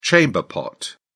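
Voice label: "chamber pot", British English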